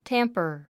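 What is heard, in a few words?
"tamper", English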